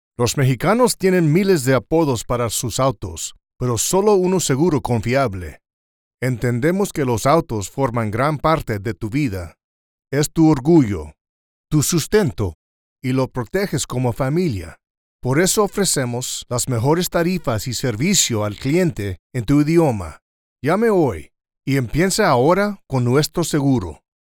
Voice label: Comercial de Seguros

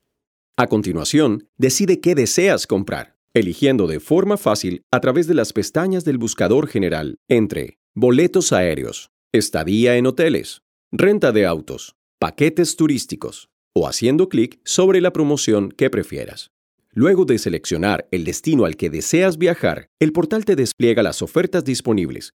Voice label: Viajes, SP; BAC Credomatic

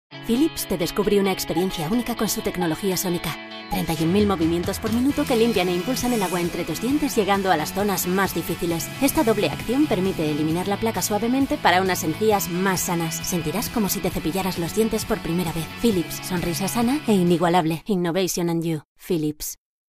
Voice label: PUBLICIDAD CÁLIDA

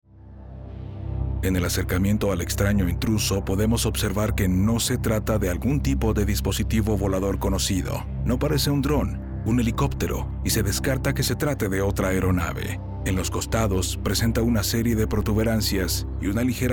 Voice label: NARRACION

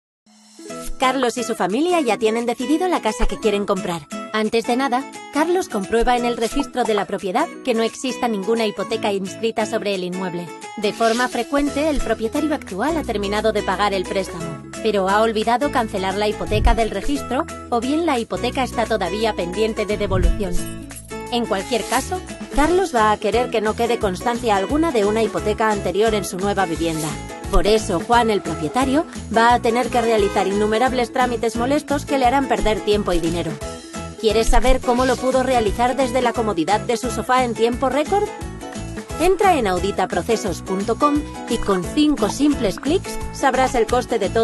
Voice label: EXPLICATIVO

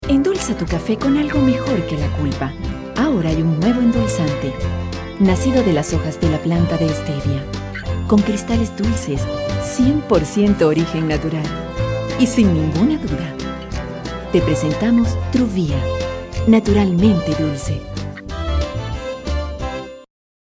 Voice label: publicidad truvia

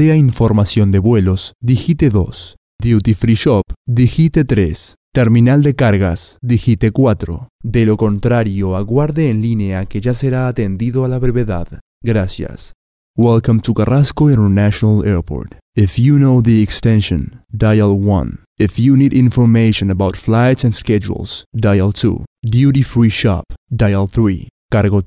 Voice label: Contestador Aeropuerto

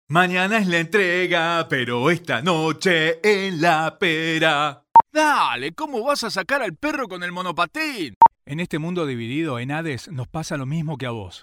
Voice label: CONVERSASIONAL PERSONAJES

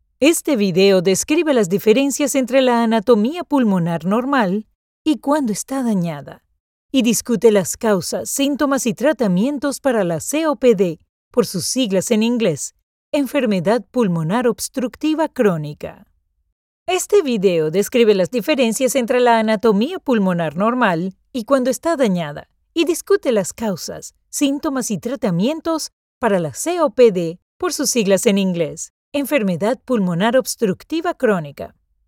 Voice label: Spanish Venezuela

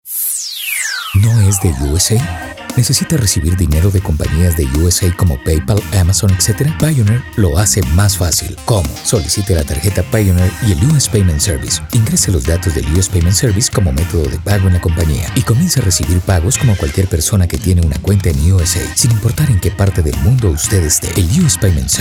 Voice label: Comerciales Institucional

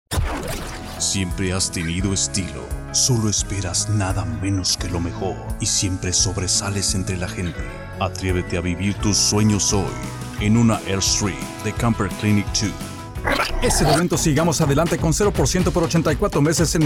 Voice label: Comercial

